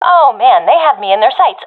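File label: taking fire, professional female; vo; agents; Source Model Packs; Counter Strike Model Pack; CS2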